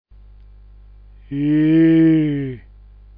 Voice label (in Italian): "iiiii", dialetto giulianova